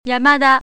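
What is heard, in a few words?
"yamada", Japanese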